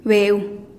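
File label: "beu", moon, Teotitlán del Valle Zapotec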